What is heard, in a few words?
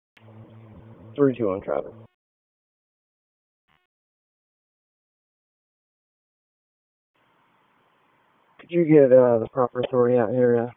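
Police District Dispatch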